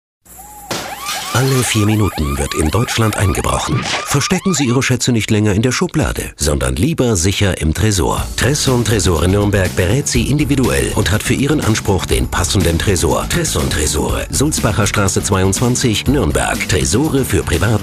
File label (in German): Radiowerbung; Einbruch alle 4 Minuten